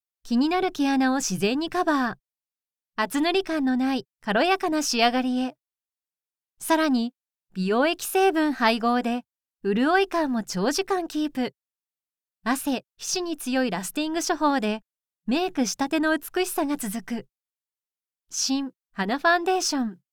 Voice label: female